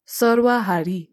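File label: "omnivorous", English; animal feeding habit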